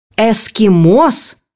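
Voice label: "Eskimo", Russian; bukvar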